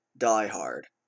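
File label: "diehard", US English; pronunciations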